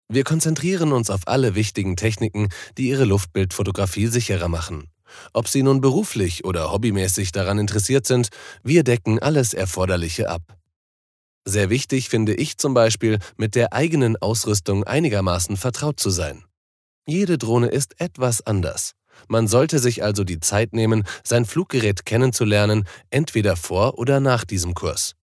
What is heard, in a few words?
German M elearning